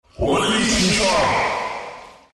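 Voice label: attack, chinese; dota2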